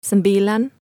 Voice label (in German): zaehlen Indonesisch